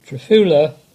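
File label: "Trefula", English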